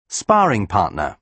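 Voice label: "sparring partner", British English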